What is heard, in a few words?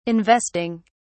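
"investing", British English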